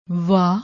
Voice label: "vaa", Punjabi; ਵਾ